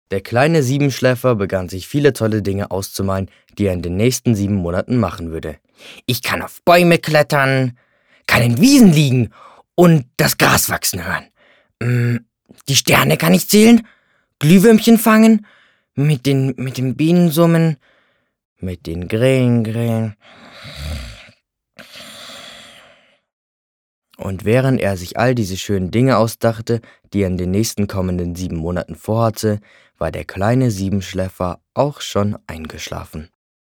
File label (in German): Jungen; Der Siebenschläfer